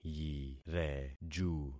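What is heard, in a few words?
"yireju", concatenated syllables; Affixing experiment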